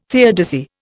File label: "theodicy", Indian